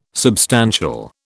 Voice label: "substantial", British